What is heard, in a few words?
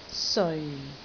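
"seoi4", Cantonese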